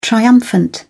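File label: "triumphant", British English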